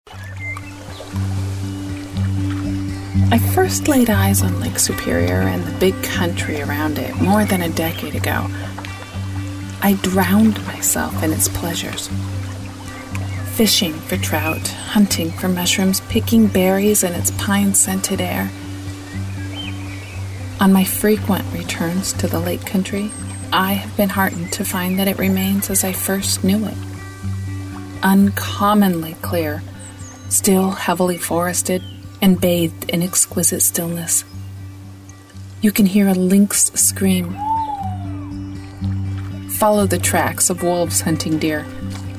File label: audiobook